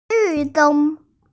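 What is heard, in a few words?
"lv4 deng1", Mandarin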